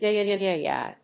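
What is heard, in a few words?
"Yeah Yeah Yeah Yeah Yeah", English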